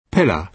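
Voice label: "pillar", British English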